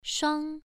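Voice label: "shuang", Mandarin Chinese syllable, first tone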